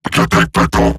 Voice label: Player Spotted, Robot Large; Warframe; Eximus Corpus Robotic Large; Battle Barks